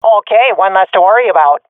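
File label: i killed enemy, swat female; vo; Counter Strike Model Pack; CS:GO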